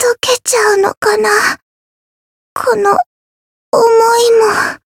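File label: retire, Japanese; yukino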